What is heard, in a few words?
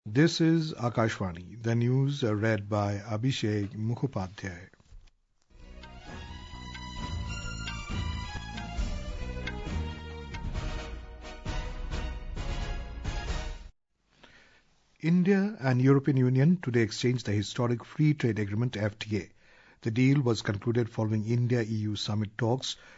English; Cricket: India thrashed England in Rajkot test